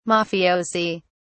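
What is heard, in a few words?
"mafiosi", British English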